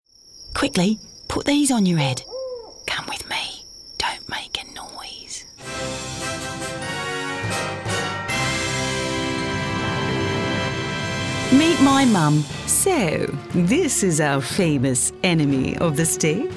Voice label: Character